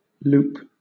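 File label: "loop", British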